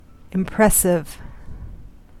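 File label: "impressive", US English; pronunciations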